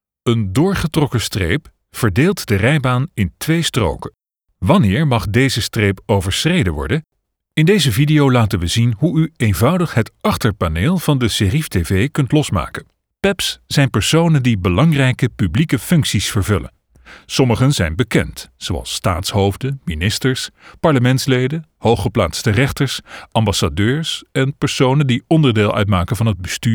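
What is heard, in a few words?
E-Learning, voiceover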